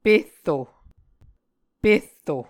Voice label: "perro", Muscogee

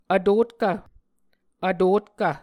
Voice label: "vtotkv", Muscogee

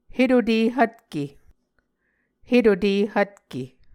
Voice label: "hetute hvtke", Muscogee

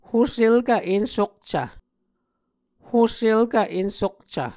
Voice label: "hoselkv ensukcv", Muscogee

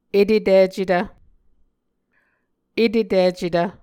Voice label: "eteticetv", Muscogee

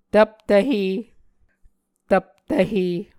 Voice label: "tvptvhe", Muscogee

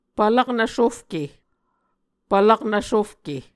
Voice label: "pvlaknv sofke", Muscogee

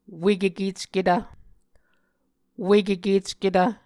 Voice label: "wekekecketv", Muscogee

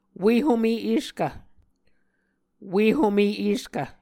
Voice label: "ue home eskv", Muscogee

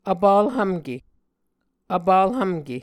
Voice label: "vpvlhvmke", muscogee

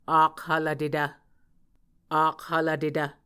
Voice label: "akhvlvtetv", Muscogee

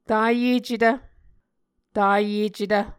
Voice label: "tayecetv", Muscogee

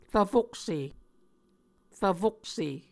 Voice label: "rvfokse", Muscogee

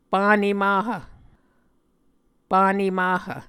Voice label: "panemahv", Muscogee

